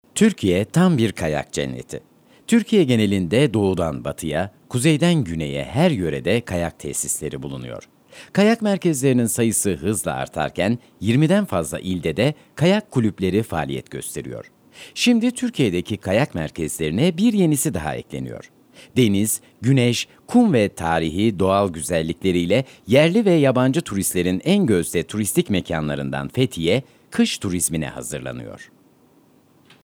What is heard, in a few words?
Turkish; foreign languages